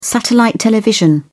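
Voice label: "satellite television", British English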